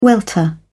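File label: "welter", British English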